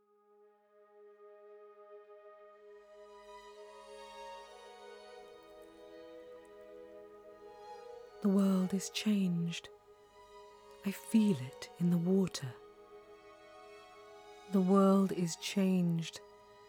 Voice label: Film trailer; Galadriel